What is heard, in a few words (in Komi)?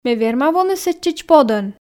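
ме верма воны сэтчӧдз подӧн, Komi, female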